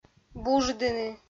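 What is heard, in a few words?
"буждыны", Komi, female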